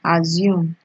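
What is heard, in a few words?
"assume", Nigerian Pidgin, female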